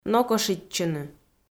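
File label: "нокошитчыны", Komi, female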